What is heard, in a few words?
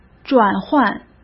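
"转换", Mandarin Chinese; chinese flashcards; hsk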